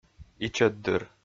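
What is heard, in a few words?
"ичӧтдыр", Komi-Zyrian, male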